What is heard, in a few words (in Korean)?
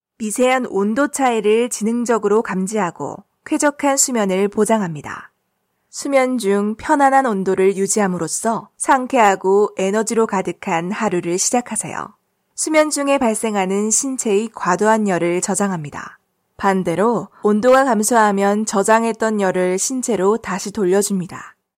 Korean AI Sleeping Aid; Female